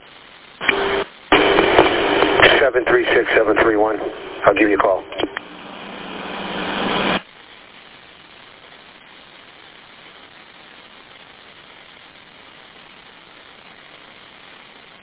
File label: Police Radio